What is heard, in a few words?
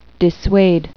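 "dissuade", English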